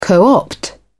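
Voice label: "co opt", English, British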